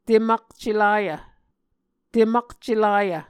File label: "temakcelayv", muscogee